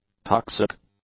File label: "toxic", English; adjectives